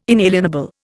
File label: "inalienable", Indian